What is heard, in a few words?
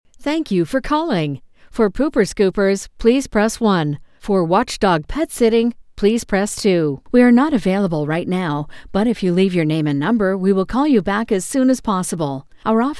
english american ivr; professional non-union voice talent (neutral North American {Canadian or US})